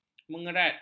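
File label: "mengerat", Malay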